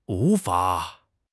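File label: "wu2 fa3", Mandarin Chinese; HSK4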